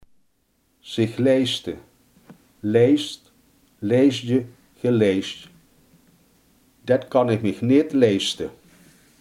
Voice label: "veroorloven zich", limburgse

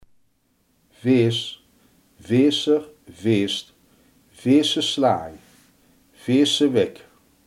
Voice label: "vers", limburgse

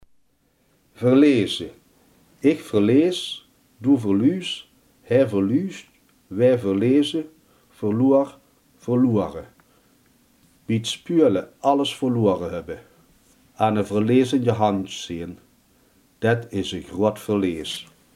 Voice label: "verliezen", limburgse

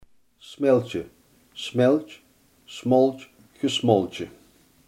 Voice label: "smelten", Limburgs

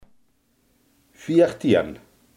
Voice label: "veertien", limburgse

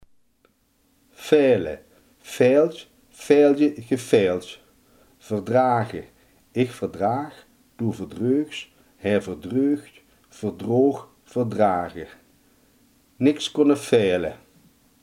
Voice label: "verdragen", limburgse

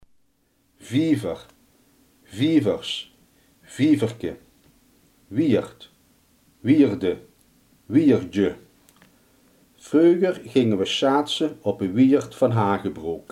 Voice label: "vijver", limburgse

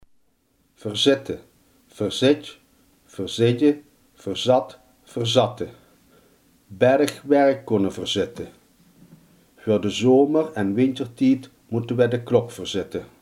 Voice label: "verzetten", limburgse

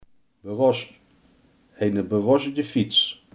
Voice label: "verroest", limburgse

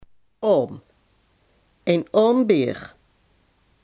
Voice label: "aam", Limburg

